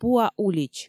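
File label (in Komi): пуа улич, Komi, female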